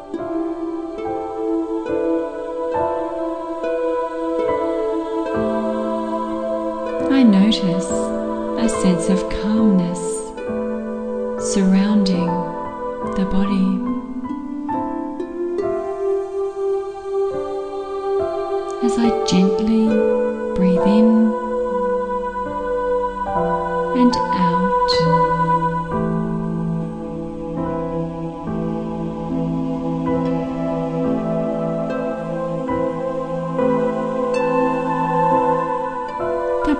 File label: GUIDED RELAXATION